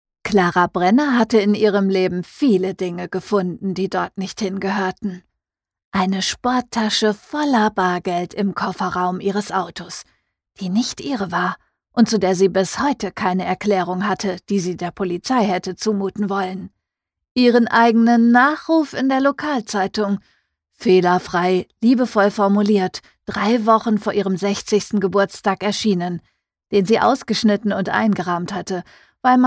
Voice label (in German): Hoerprobe, sprecher